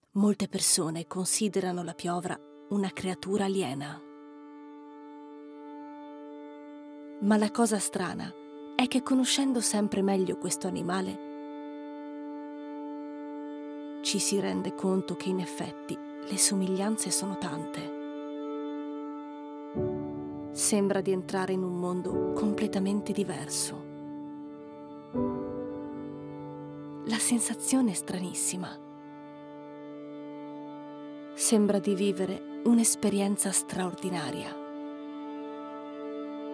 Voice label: italian documentaries

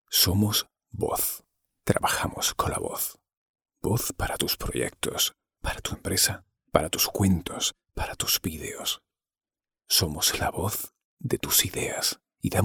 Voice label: spanish corporate videos

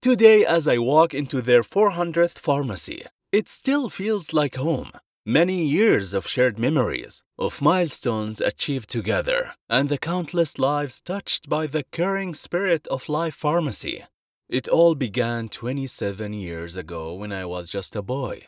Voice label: english animation

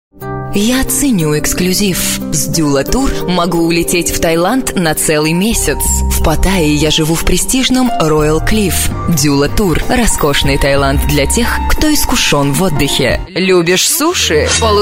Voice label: Russian commercial

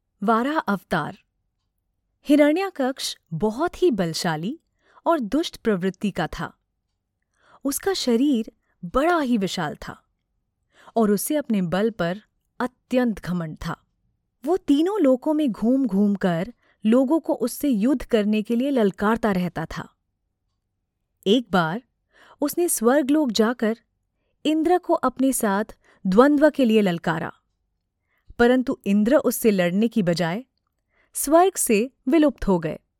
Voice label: hindi documentaries; professionelle indische Sprecherin

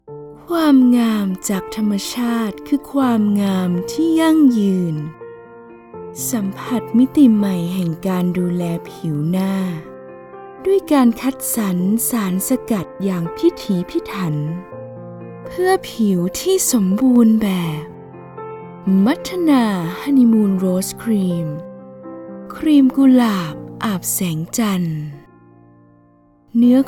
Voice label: thai commercial

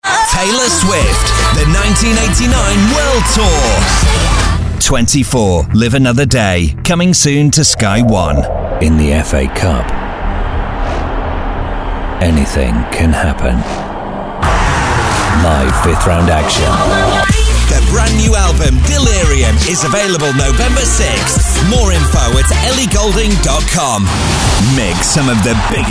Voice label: english british promos

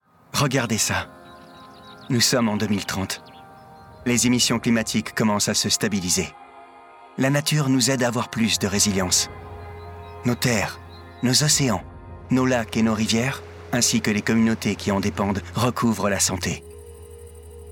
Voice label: french corporate videos

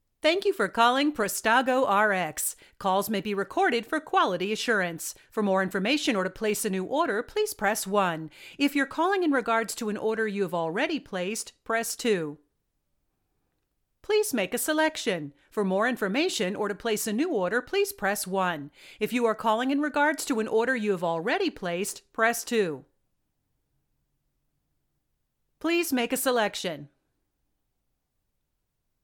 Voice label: english american ivr

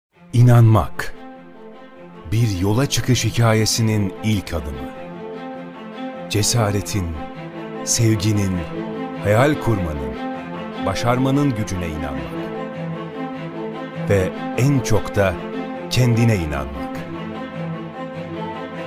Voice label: turkish documentaries

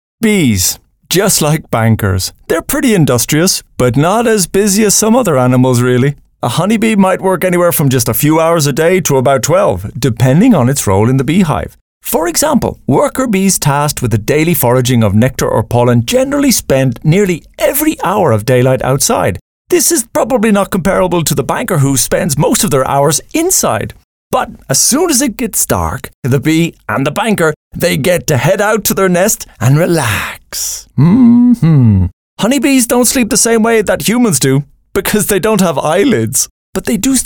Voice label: english irish podcasts

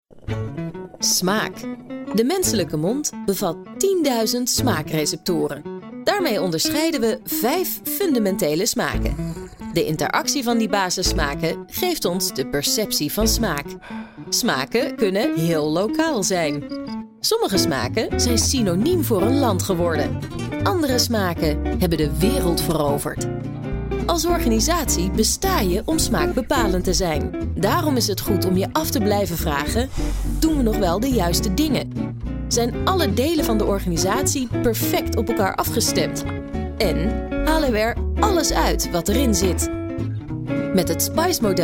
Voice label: dutch corporate videos

